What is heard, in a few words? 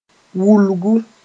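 "wulgu", Mooré; haze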